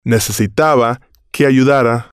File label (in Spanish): "necesitaba Que Ayudara", spanish